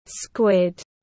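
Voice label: "Squid", English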